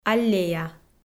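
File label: "аллея", Komi-Zyrian, female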